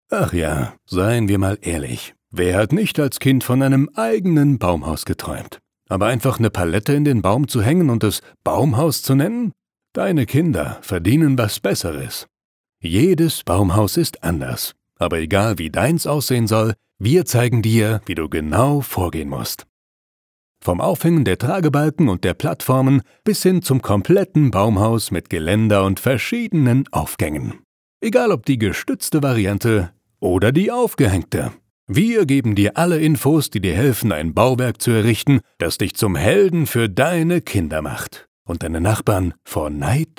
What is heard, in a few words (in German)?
Erklaerfilm; HORNBACH